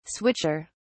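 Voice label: "switcher", British English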